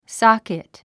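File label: "socket", English word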